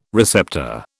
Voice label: "receptor", British